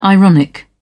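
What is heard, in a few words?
"ironic", British English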